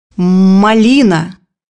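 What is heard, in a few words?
"raspberry", Russian; bukvar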